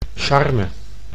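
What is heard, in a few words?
"charme", Dutch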